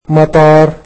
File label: "airport", egyptian